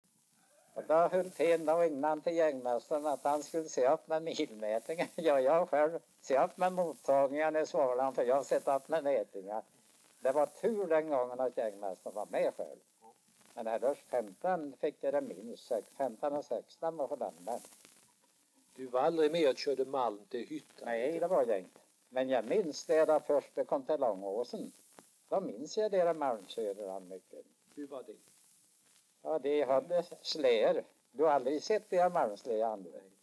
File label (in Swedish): dialekter; Folkminnen; Svenska sagor